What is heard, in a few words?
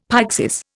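"pyxis", Indian English; Dictionary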